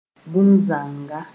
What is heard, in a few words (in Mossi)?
"bũmb zãnga", moore